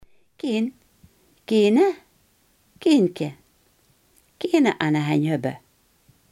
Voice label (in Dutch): "kloof", Limburgs